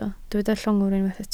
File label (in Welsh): "llongwr" Welsh